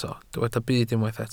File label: "byd", Welsh